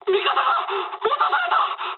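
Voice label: aircraft destroyed mood med, speech japanese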